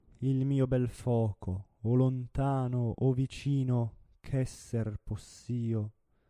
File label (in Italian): espressivo